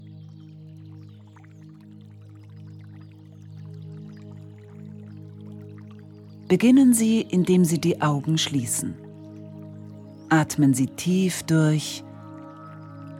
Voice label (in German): Meditation, Ratgeber